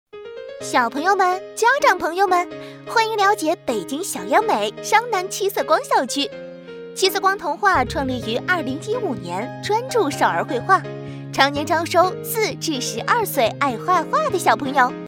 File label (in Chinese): 宣传广告